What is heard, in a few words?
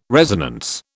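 "resonance", British English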